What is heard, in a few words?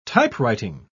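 "typewriting", English pronunciation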